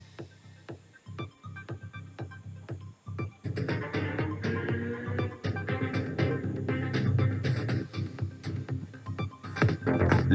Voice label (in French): web radio anglais